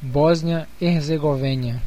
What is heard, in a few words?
"bosnia herzegovina", pt BR; gcompris; geography